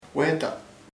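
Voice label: throat garganta, Chamacoco word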